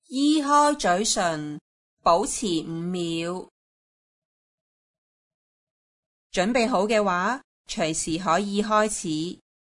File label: instruction oromotor; aphasia